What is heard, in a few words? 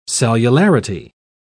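"cellularity", British English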